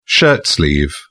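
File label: "shirtsleeve", British English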